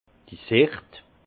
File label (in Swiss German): "sicht", alsace; la vue; vin